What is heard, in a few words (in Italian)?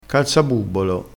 "cazzabubbolo", crespinese